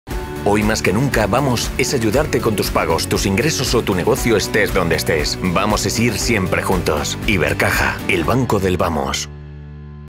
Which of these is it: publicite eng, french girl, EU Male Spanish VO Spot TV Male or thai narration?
EU Male Spanish VO Spot TV Male